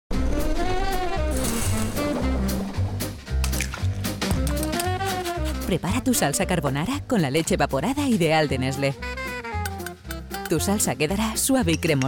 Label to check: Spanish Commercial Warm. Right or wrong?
Right